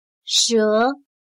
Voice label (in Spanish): "舌", Chino